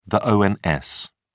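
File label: "ons", British English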